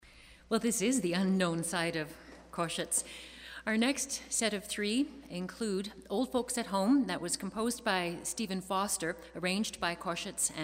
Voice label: narrator